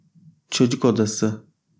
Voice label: "çocuk odası", Turkish

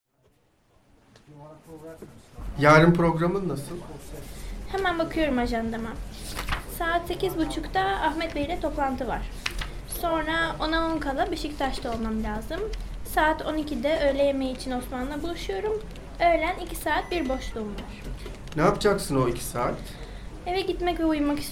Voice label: conversation in office, Turkish

